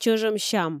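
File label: "чӧжӧм сям" Komi, female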